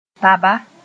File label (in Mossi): "taba", moore; tobacco